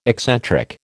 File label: "eccentric", American